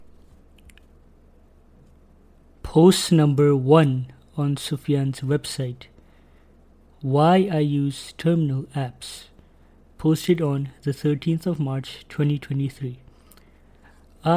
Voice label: voiceover